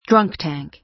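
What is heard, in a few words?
"drunk tank", American English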